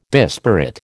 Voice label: "disparate", American